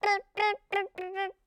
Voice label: babble, birthday; events; CosmicRage; CosmicRageSounds